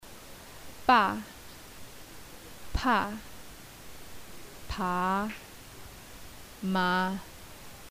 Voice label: alphabet, Tibetan